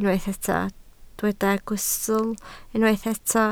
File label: "gwystl", Welsh